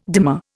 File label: "dimer", Indian